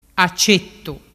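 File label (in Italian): "cettua", dialect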